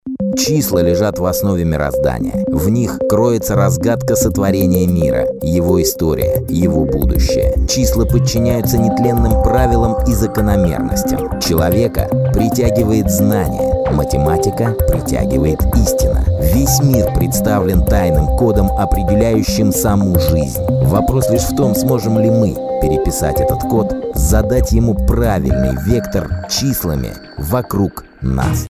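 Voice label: narrator educational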